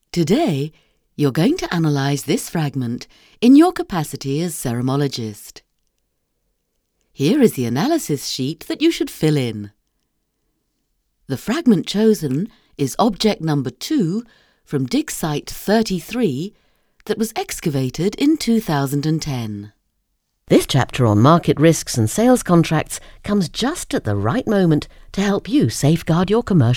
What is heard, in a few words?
E Learning